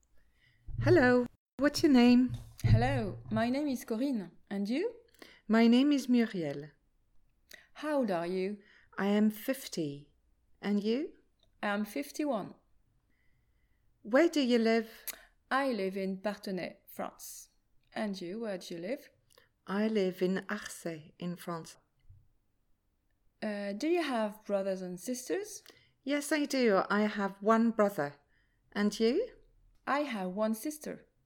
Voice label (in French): presentation en interaction